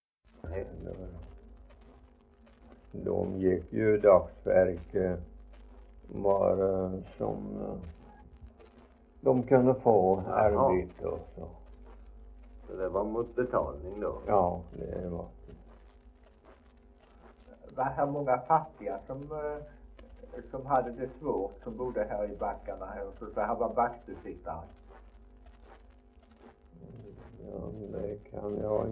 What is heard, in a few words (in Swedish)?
Idala, dialekter; HALL